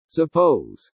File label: "suppose", pronounce